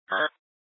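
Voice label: Tempe police department, scanner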